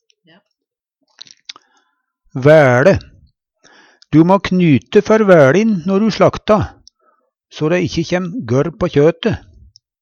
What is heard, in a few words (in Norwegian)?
"væLe", Numedalsmål